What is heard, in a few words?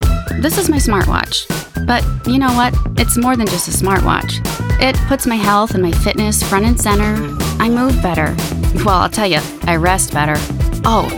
Commercial